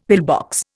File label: "pillbox", Indian; Dictionary